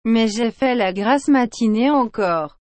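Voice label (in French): "Mais j'ai fait la grasse matinée encore", French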